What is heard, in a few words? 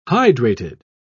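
"hydrated", British English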